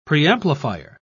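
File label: "preamplifier", British English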